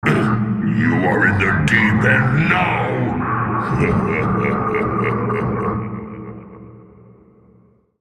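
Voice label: taunt, English; Nautilus; Character Voices - English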